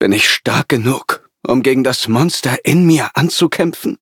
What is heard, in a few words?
levelup, de; cookie run